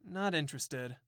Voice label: "not interested", voice; yuki